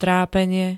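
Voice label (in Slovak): "trápenie", slovenské; t. s deťmi; pomáhať si v t-í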